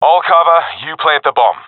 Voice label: friend plant bomb, professional epic; Counter Strike Model Pack; CS:GO

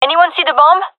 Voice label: query bomb, fem; vo; gendarmerie fem epic

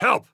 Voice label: help, heavy; vo; Counter Strike Model Pack; CS:GO; phoenix coop

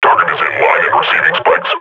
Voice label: firing, combine grunt; Half Life Model Pack

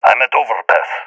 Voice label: at overpass, balkan; vo; balkan epic

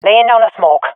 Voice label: throwing smoke, swat fem; vo; Counter Strike Model Pack; CS:GO

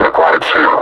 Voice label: announce enemy zombie, combine suppressor; Half Life Alyx

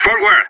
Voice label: radio lets go; Counter Strike; CS:GO; separatist

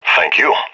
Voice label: thankful; Counter Strike; CS:GO; leet epic

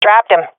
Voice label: i killed enemy, swat fem; vo; agents; Source Model Packs; Counter Strike Model Pack; CS2

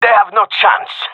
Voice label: round winning, gendermerie male

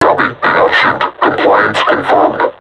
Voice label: taunt, vo combine suppressor; Half Life Alyx

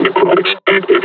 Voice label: announce enemy zombie; combine charger; Half Life Alyx

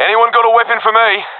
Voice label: request weapon, professional epic; vo; Counter Strike Model Pack; CS:GO

